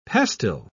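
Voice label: "pastil", British English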